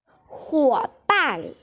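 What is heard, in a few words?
"huoban", Mandarin Chinese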